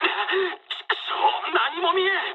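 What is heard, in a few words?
searchlite mood med, speech japanese; War Thunder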